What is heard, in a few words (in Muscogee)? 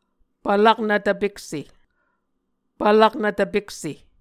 "pvlaknv tvpekse", Muscogee